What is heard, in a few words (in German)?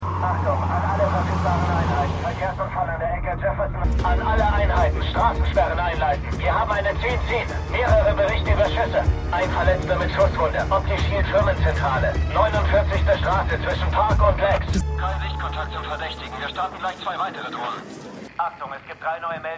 Polizeifunk; Law & Order